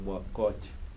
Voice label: "you thank", Kibembe

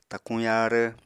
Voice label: "takuñ yaarv", Apatani

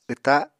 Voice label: "wta", Apatani

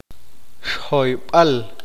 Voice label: "derramar algo", Q'eqchi'

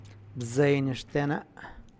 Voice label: my deer, Zapotec; bzeiny xtena; (Spanish) mi venado

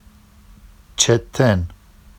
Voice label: “ʧetten”, Vafsi; چِتِّن

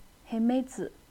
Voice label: "moon", naxi

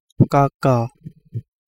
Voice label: Kapingamarangi; whimbrelk

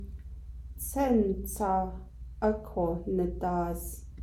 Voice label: navajo; conjunction; The stone is big so it is heavy; conjunction ’áko so, so that, so then

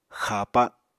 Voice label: "xapa", Apatani

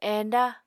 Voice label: "enda", Apatani

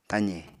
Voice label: "taze", Apatani

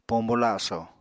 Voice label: "pombo laso", Apatani

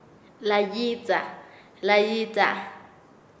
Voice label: Nasa Yuwe; ponerse liso; paez

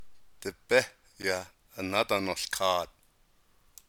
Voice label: navajo; imperative; Bring the sheep in again

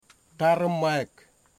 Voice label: "tongue", Wamut

